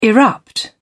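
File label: "erupt", British English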